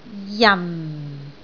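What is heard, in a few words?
"jan4", Cantonese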